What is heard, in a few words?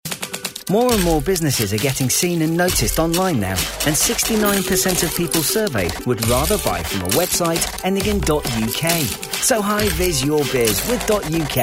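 narration, Natural Young Male Voice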